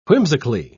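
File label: "whimsically", British English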